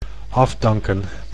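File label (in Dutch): "afdanken", Dutch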